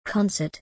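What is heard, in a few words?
"concert", English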